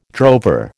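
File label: "drover", American English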